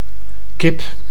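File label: "kip", Dutch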